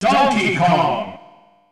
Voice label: Announcer; Donkey Kong; SSB